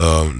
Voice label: vox fx; E-Lab; X Static Goldmine 2; akai